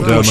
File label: English